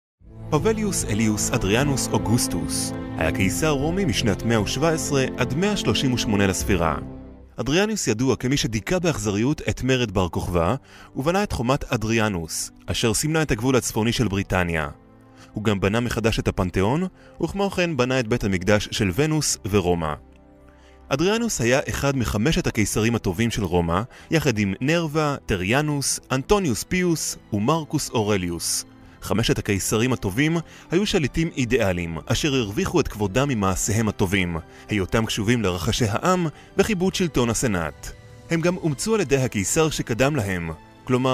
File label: hebrew narration